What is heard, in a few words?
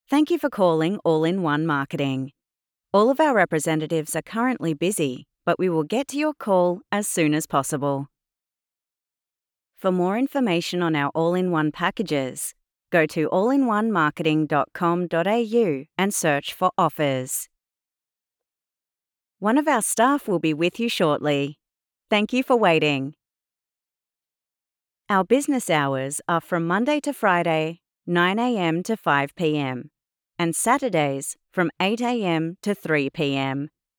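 IVR Female Australian Friendly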